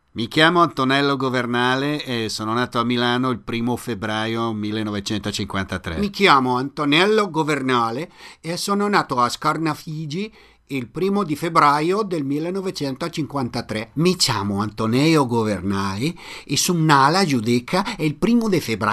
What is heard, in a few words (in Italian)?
dialetti; Voz edad: 40-80 años de edad